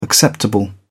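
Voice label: "acceptable", English, British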